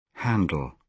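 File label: "handle", British English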